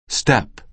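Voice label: "step", American English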